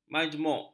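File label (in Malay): "majmuk", Malay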